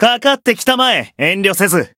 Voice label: encount fear, jp